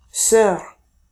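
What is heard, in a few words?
"soeur", French; famille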